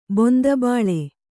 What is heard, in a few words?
"ಬೊಂದಬಾಳೆ", Kannada; bonda bāḷe; noun